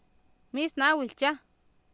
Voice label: mIisnAawiltiAh, Oapan minimal pairs female; Oapan minimal pairs 2000

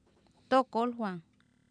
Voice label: tOokOolwaan, Oapan minimal pairs female; Oapan minimal pairs 1998

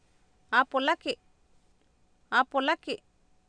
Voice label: "aapolaki", Oapan, female; Oapan minimal pairs 2000

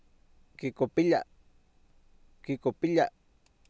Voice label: "kikopilia", Oapan, male; Oapan minimal pairs 2000

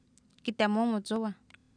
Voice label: Oapan minimal pairs, female; kitemOomotsOwah; Oapan minimal pairs 1998

